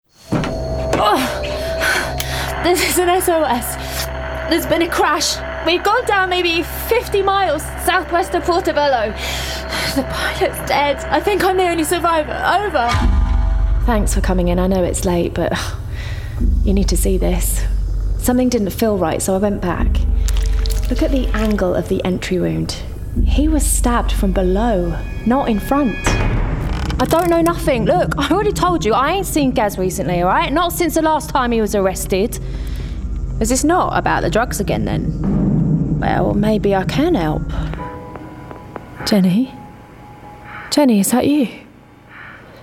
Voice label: Gaming Character; Gaming Character Showreel